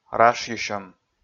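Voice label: "рашйысьӧм", Komi, male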